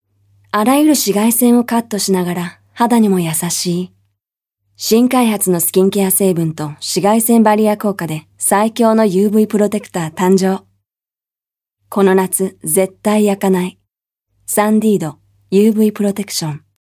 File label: Japanese Soft, Japanese Female